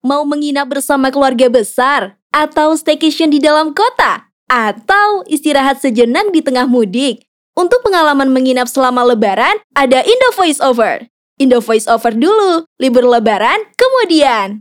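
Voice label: casual Indonesian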